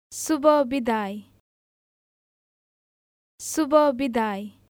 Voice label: Goodbye, Nepali